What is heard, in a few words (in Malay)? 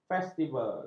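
"festival", Malay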